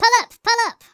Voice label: uh oh; Biggles